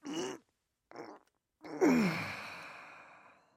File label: male hate roam